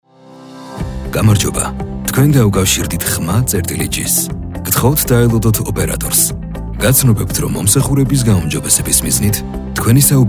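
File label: Georgian, male, IVR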